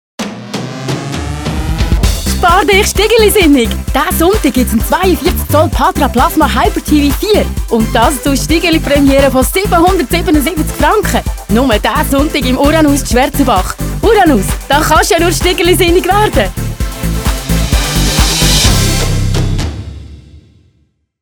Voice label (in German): reisserisch